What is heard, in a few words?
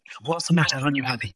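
VoiceActing es, Male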